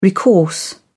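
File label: "recourse", British English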